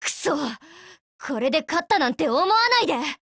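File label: Japanese, Voice; BREAK; GF Alarm; PP19Mod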